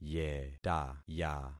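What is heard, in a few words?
"yetaya", concatenated syllables; Affixing experiment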